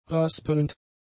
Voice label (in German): "raspelnd", German; adjectives